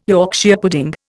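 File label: "Yorkshire pudding", Indian English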